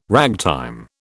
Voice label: "ragtime", British